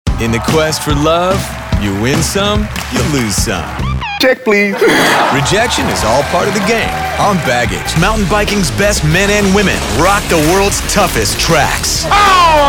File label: promo male